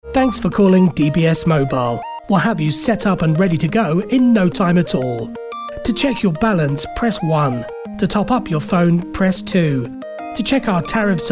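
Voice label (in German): British English, Kommentar Freundlich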